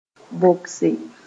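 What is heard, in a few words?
"bogse", Mooré; grind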